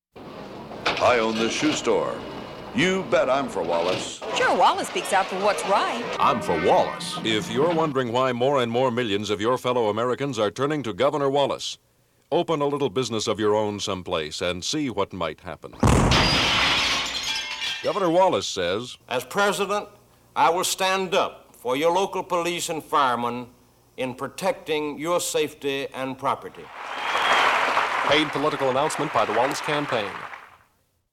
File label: Radio Spot; George Wallace For President